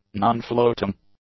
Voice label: "non-floating", english; adjectives